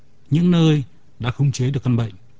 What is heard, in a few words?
vi speech